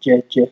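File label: "jeje", Nigerian Pidgin, male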